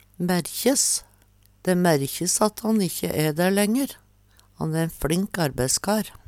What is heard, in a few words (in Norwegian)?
merkjes, Numedalsmål